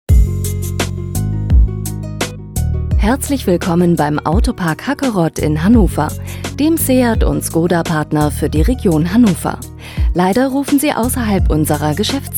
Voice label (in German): Telefonansage; Autohaus